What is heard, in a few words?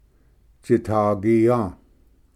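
"tsitagia", Cherokee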